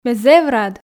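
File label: Komi sentences, female; ме зэв рад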